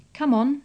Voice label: "come on", English